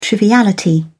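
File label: "triviality", British English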